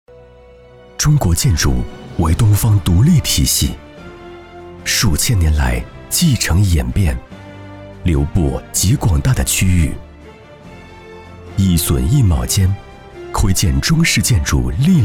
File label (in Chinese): 男 宣传片 浑厚 房产 建筑公司; 中国建筑